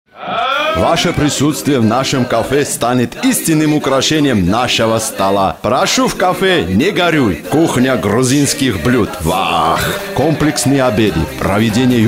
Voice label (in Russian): gruzin